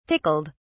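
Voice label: "tickled", British English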